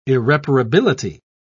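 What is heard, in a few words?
"irreparability", British English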